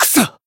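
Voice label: damaged, Japanese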